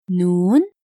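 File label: "nuun", Arabic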